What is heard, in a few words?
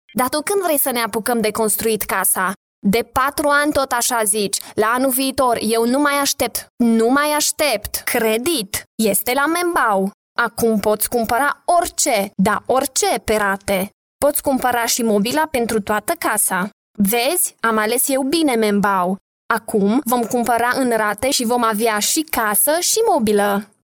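Romanian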